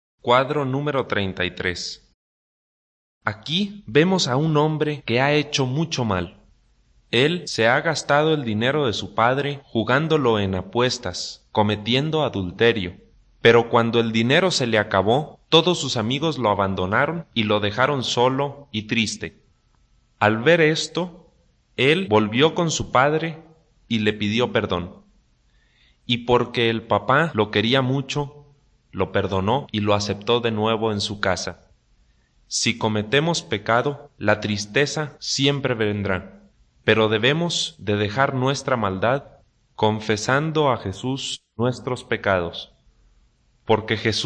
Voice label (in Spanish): good news spanish mexico